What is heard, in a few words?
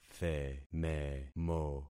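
"thememo", concatenated syllables; Affixing experiment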